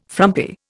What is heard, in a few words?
"frumpy", Indian